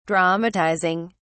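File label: "dramatizing", British English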